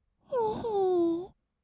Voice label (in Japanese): "にひー", kawaii voice; ASMR; 色々なワンショット